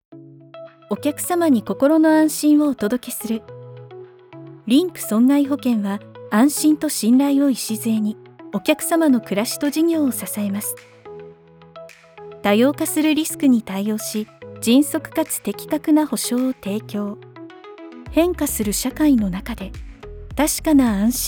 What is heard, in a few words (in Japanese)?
企業VP